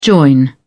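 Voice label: "join", British English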